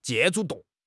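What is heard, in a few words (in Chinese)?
"借助", Chinese; HSK6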